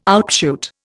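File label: "outshoot", Indian English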